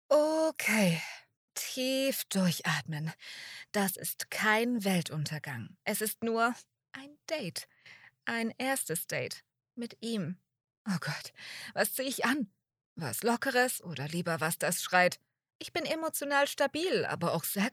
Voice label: Young New Adult; Stimmen Weiblich; Was wenn ich es verkacke